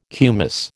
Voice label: "kumis", American